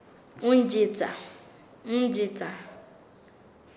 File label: Nasa Yuwe; secarse; paez